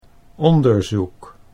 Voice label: "onderzoek", Dutch

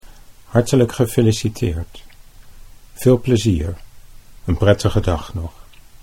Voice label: "hartelijk gefeliciteerd", Dutch

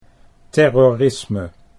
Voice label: "terrorisme", Dutch

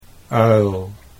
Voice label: "uil", Dutch

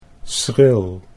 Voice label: "schril", Dutch; 'shrill'

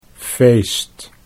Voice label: "feest", Dutch; 'party' think of: 'feast'

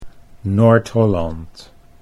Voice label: Noord Holland, Dutch

